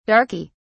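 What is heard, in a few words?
"darkey", British English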